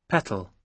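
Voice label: "petal" English, British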